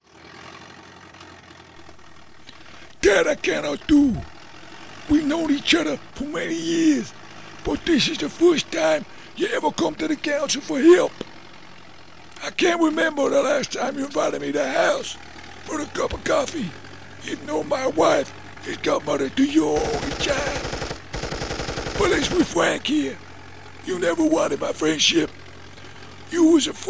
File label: American Gangster